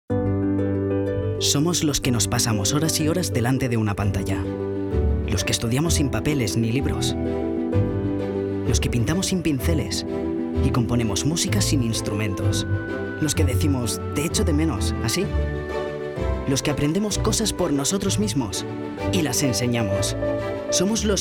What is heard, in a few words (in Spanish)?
Spot casual; Orange